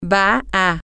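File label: you are going to, Spanish, female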